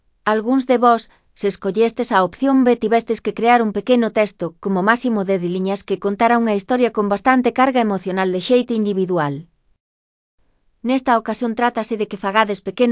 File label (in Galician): opcion d dramatiza